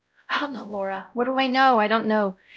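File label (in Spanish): Voice Acting, es, female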